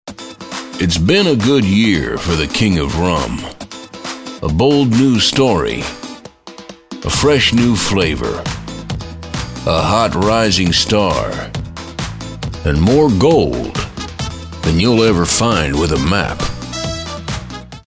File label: authoritative sexy